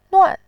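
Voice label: "nuan", Mandarin, tone 4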